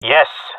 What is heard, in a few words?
affirmation, jungle male; player vo; Counter Strike Model Pack; CS:GO